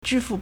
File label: "zhi1fu4", Chinese